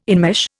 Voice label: "enmesh", Indian